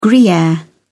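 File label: "gruyere", British English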